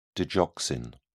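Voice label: "digoxin", en, British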